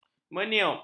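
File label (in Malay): "meniup", Malay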